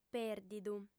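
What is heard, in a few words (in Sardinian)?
"pèrdidu", female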